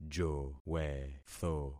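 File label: "jowetho", concatenated syllables; Affixing experiment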